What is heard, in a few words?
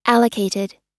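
"allocated", American English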